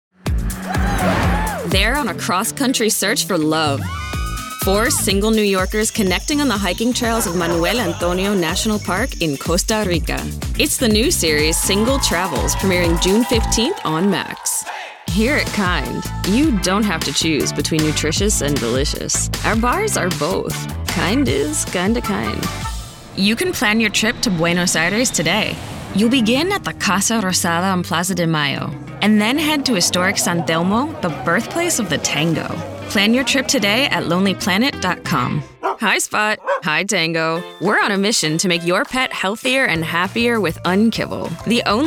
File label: Commercial; voice over